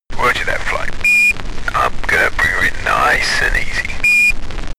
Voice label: astronaut chatter